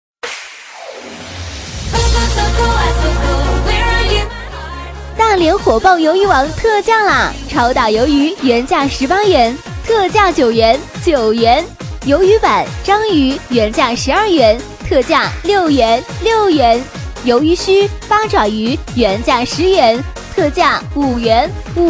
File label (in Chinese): 女 促销 促销广告